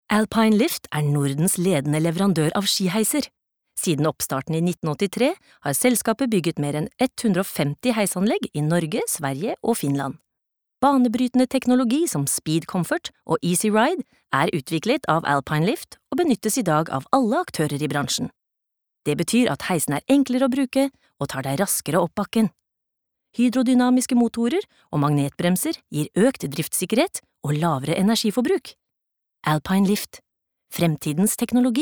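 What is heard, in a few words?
Norwegian corporate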